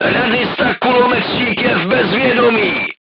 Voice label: voice message machine gunner contused, crew tanks czech